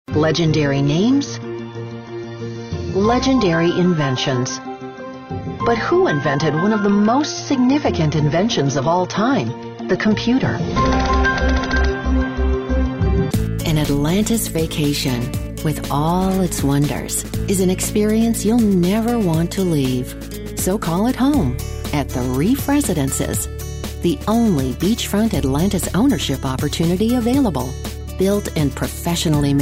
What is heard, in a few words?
Narration